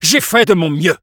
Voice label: jeers, fr

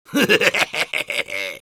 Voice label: laugh evil es; Soldier

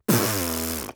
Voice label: Scout jeers, de

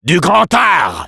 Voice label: Sniper positive vocalization, fr

